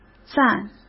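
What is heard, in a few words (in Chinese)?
"暂" Mandarin Chinese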